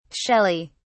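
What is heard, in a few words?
"shelley", British English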